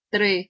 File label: "3", Ukrainian